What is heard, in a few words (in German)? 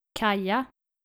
"kaja", nordische maedchennamen